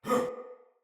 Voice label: Surprised Soldier Male; Battle; Barks; Dialog; Sinecure Soldier Male A